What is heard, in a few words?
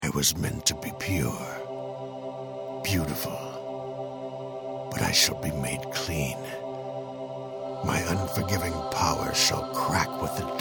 Animation